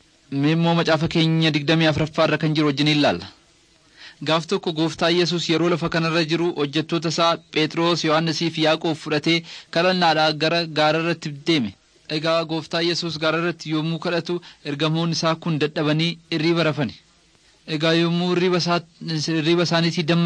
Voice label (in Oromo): oromo wellega; servants of god